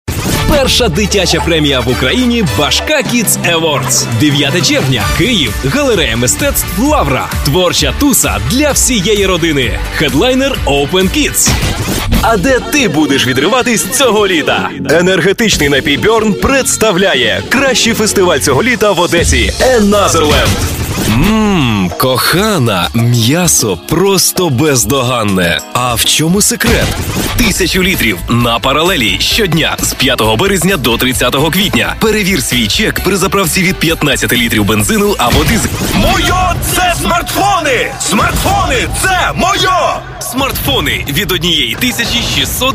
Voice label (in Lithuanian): Ukrainieciu balsas, vyras